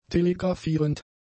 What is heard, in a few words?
"telegrafierend", German; adjectives